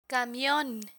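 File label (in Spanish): "camion", Spanish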